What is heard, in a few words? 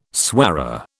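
"soirée", British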